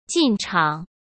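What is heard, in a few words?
"进场", Mandarin Chinese; chinese-flashcards; hsk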